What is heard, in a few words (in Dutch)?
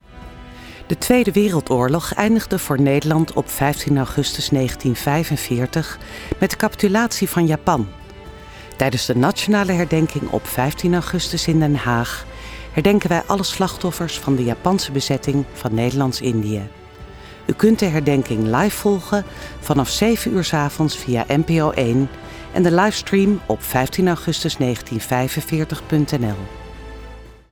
TV Radio Herdenking 15 augustus, voice over